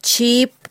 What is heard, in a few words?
"chip", mam